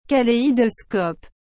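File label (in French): "kaleidoscope", French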